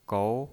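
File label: "gou", Mandarin Chinese, second tone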